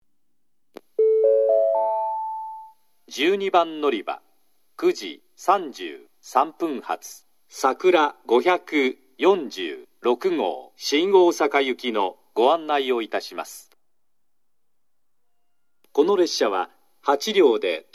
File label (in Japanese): 新水俣, 12番のりば案内放送; さくら546号 新大阪行き; shin minamata